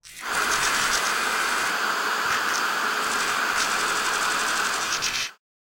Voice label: disruptive advertisement